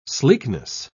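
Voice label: "sleekness", British English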